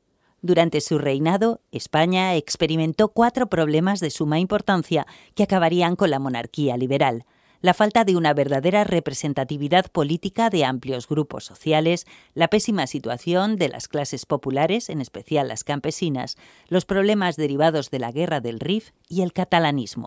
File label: locutora spanish voiceover